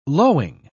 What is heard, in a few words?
"lowing", pronounce